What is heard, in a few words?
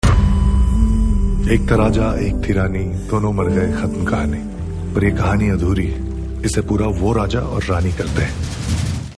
Dialogue Ringtone; Sushants; Ek Tha Raja Ek Tha Rani